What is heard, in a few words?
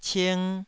"cing2", Taiwanese